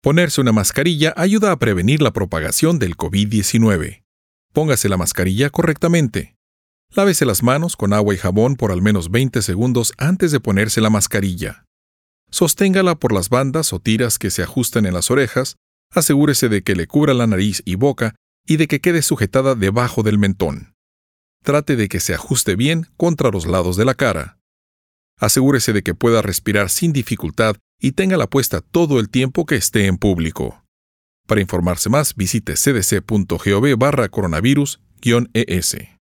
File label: Spanish How to Wear a Mask; PSA